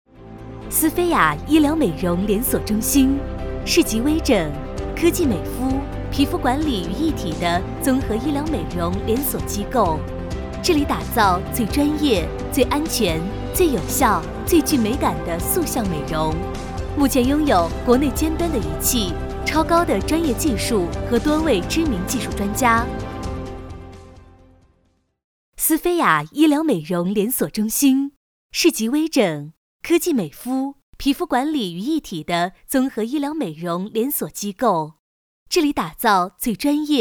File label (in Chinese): 女 医疗专题; 斯妃雅医疗美容